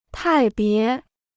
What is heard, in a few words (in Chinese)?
"派别", Chinese